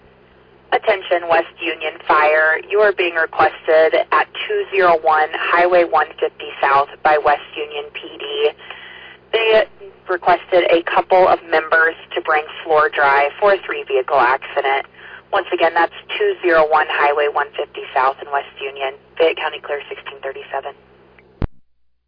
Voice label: West Union Fire, dispatch

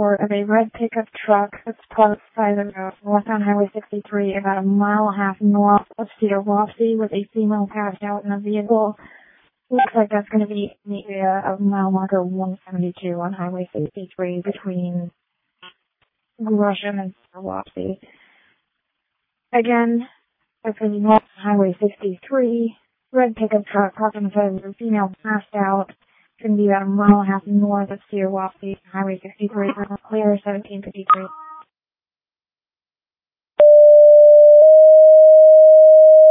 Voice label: Ambulance, dispatch; Denver

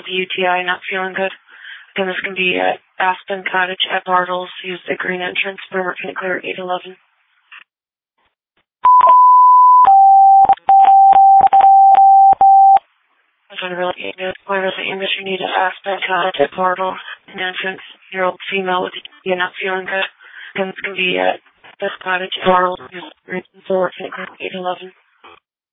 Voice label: Waverly Ambulance, dispatch

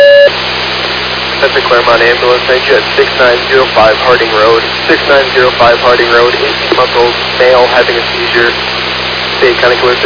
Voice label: Fire, dispatch; Clermont

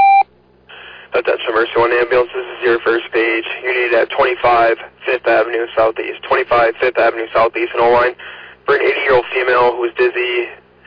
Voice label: Oelwein Ambulance, dispatch

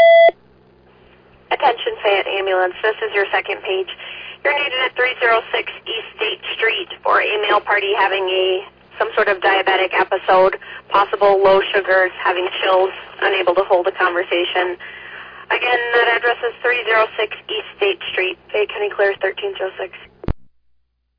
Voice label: Ambulance, dispatch

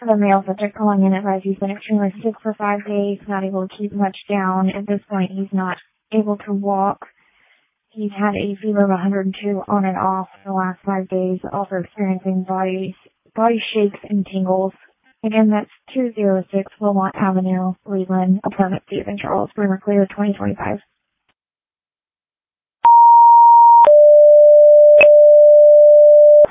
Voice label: Ambulance, dispatch

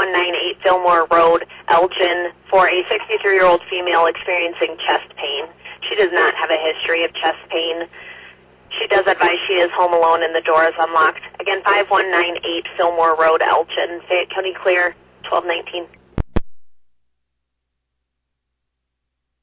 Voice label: Ambulance, dispatch

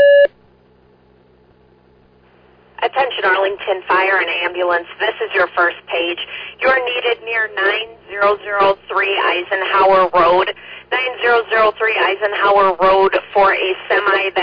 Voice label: Arlington Fire, dispatch

